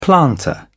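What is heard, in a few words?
"planter", British English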